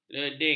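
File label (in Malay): "leding", Malay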